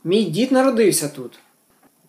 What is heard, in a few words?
dialogue, Ukrainian